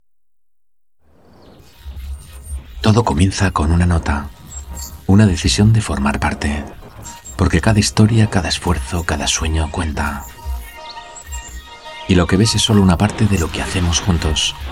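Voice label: corporate videos, Español, Hombre; Vídeos corporativos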